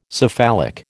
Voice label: "cephalic", American